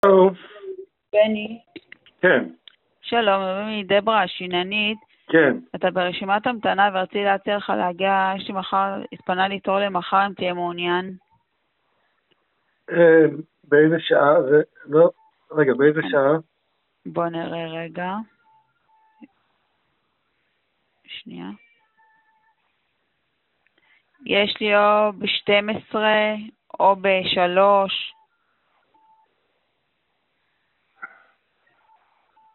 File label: call recording